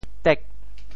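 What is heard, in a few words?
"tek8", Teochew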